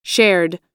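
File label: "shared", American English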